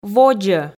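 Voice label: "водзӧ", Komi, female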